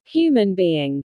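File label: "human being", English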